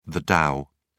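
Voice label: "dow", British English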